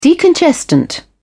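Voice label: "decongestant", British English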